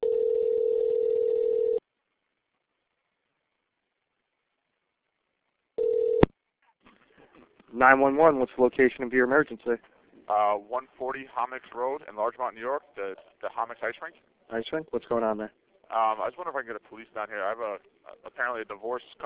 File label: 911 Call; Hommock